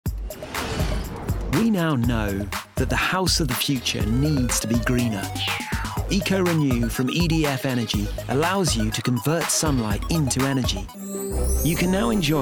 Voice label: Commercials Montage